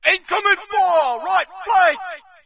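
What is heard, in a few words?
British taking fire right; player; source engine; dod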